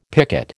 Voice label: "picket", American